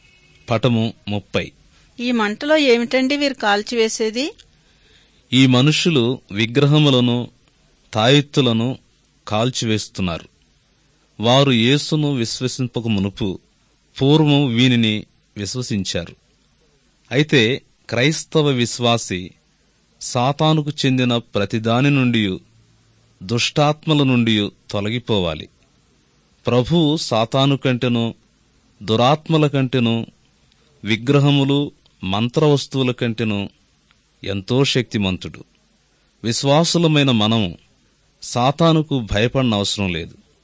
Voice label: good news telugu

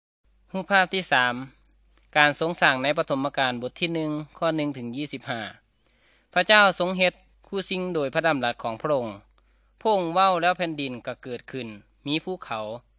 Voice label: good news thai northeastern

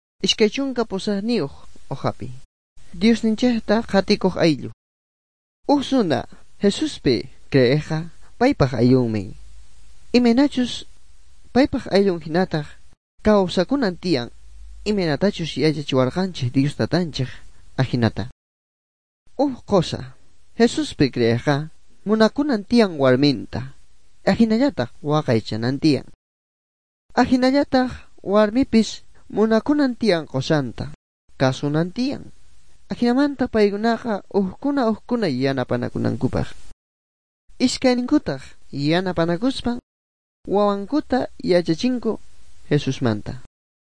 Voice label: good news quechua bolivian sucre; bible

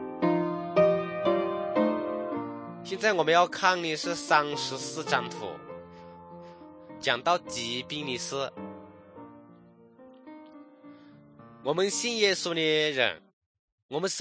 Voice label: good news shan, drama; shan thailand; kokant shan; bible society nt drama

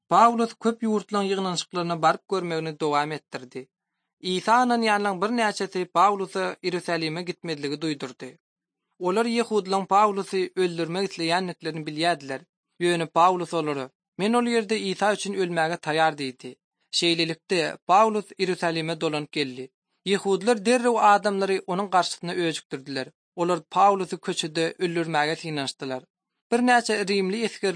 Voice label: turkmen, bible translation drama

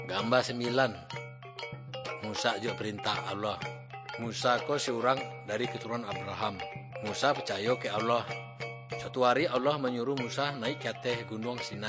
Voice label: good news minangkabau muko muko; malay; kaba ilo

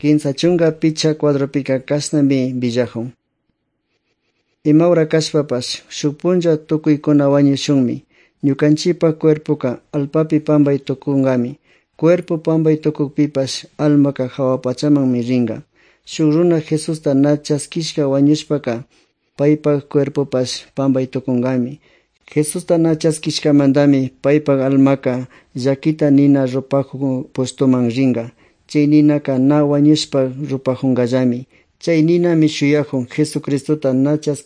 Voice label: good news quichua otovalo

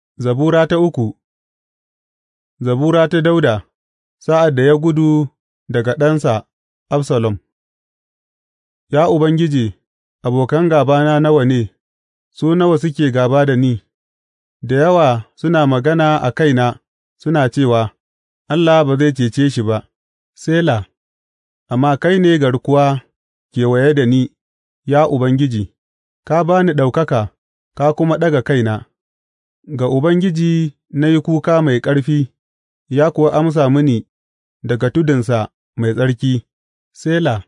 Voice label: hausa bible drama, psalms; hausa bsn nt drama

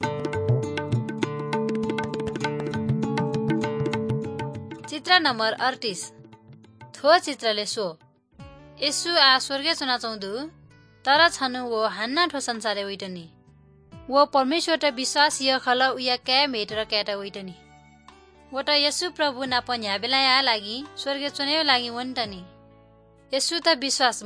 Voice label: good news newari balami

